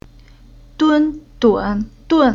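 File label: "Dun", Chinese syllable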